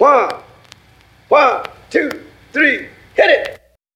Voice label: "1 1 2 3 hit it", Voice; numbers and letters

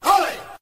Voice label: "hey" male

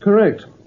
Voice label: correct british bloke

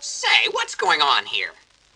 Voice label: "say what's going on here", male

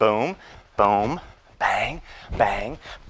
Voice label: "boom boom bang bang" loop, Voice; ooh ahh

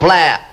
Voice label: "blaow", ooh ahh

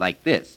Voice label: "like this", male

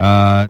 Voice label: "uh", male

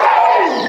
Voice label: "oh" robot

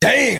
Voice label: "damn", expletives

